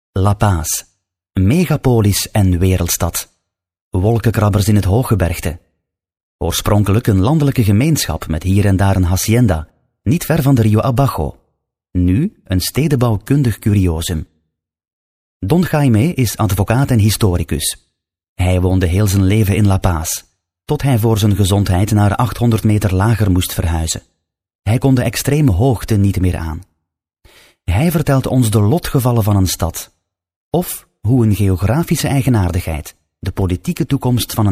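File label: flemish male narration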